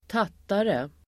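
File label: "tattare", Swedish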